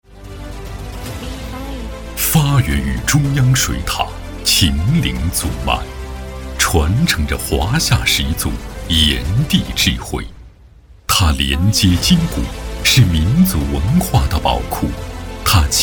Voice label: 男 宣传 政府 片 大气高端; 清姜河

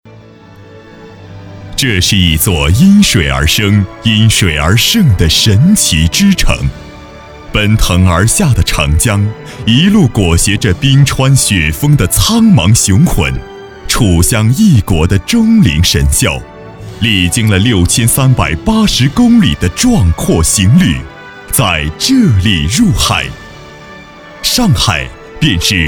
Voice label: 男 城市宣传; 上海

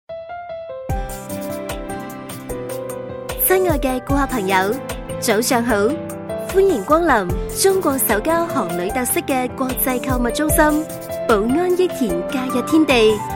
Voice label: 粤女 商场提示; 宝安益田假日天地

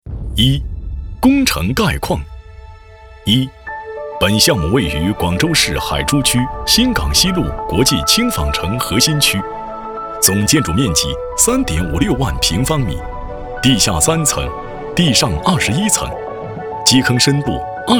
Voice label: 男, 工程汇报类; 凯华商业楼

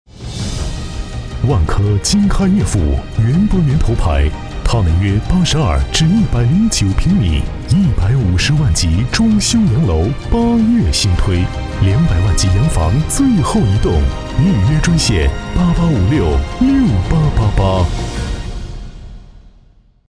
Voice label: 男 地产广告 磁性 大气 韵味; 金开悦府

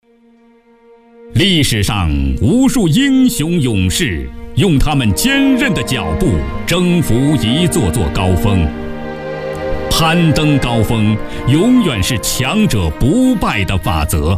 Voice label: 男, 国语男声配音

